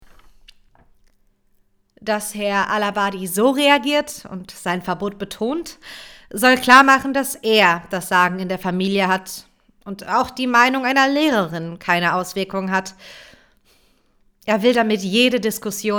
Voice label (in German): DEUTUNG SACHLICH